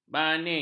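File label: "baning", Malay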